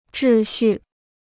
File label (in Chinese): "秩序", Chinese